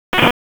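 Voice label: Raleigh Police Northeast District Tactical, p25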